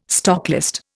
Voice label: "stocklist", Indian

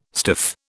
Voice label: "stiff", British

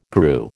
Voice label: "grew", American

